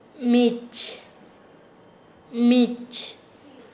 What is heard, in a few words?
"olla", Nasa Yuwe